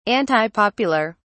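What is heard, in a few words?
"antipopular", British English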